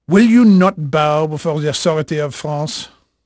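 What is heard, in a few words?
French male, voice; Pirates Of The Caribbean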